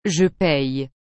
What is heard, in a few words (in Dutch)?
"je paye", frans; payer